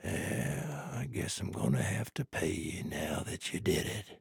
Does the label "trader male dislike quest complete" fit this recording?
Yes